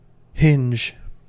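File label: "hinge", American English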